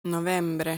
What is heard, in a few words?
"Novembre", Italian